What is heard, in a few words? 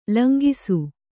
"ಲಂಗಿಸು", Kannada; langisu; = ಲಂಘಿಸು - 1